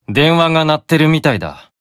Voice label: Call Notification Voice; Beelzebub